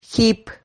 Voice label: "gip", Silabas

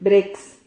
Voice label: "brex", Silabas

